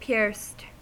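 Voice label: "pierced", American English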